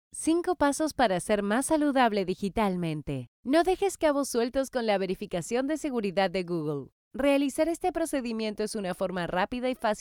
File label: spanish latin american e-learning